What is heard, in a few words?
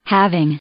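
"having", English, female; verb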